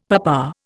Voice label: "papa", Indian; Dictionary